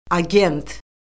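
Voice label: "agent", Azerbaijani; Orfoqrafiya Lüğəti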